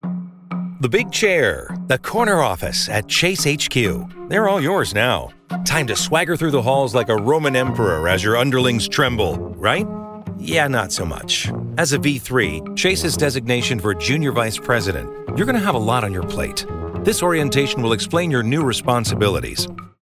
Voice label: e-learning medical, training; chase bank